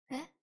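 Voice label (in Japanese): "え？" Japanese; INFINITE UNDISCOVERY; ルゥ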